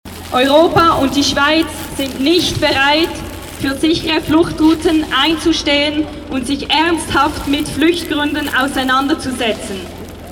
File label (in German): sprecherin demo; KUNE; BLEIBERECHT; fluchtursachen